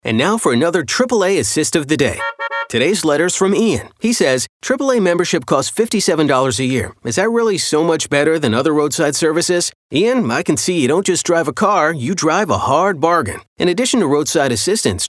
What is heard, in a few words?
RADIO; AAA Discounts